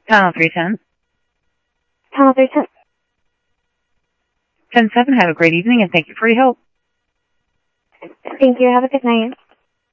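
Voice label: Lakes Sheriff Lake Patrol, scanner audio trunk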